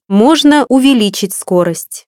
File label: Speed Limit UP, RU voice female; MioMap C220; iGO831